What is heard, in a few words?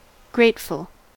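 "grateful", American English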